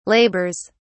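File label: "labours", British English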